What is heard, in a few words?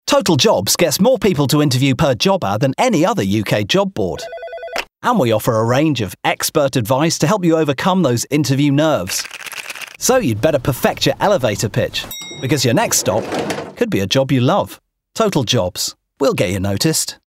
Commercial; TotalJobs